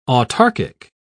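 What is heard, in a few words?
"autarkic", British English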